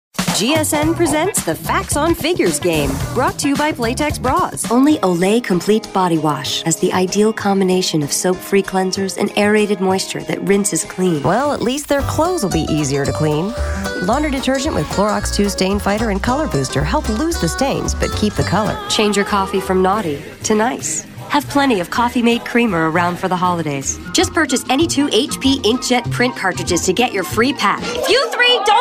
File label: Commercial